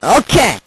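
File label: "okay", male voice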